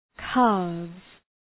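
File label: "calves", English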